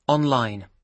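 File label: "online", British English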